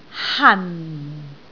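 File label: "han4", Cantonese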